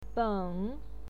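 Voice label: "beng", Mandarin Chinese, third tone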